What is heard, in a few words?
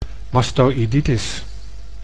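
"mastoiditis", Dutch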